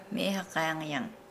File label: Nukuoro; fan